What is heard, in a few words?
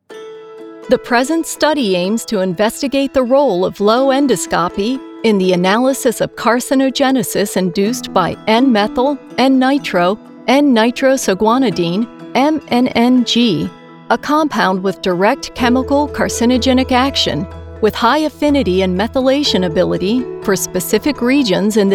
Medical Narration